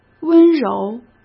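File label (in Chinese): "温柔", Mandarin Chinese; hsk; chinese-flashcards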